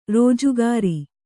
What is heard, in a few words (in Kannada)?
"ರಾಜಗೀರೆ", Kannada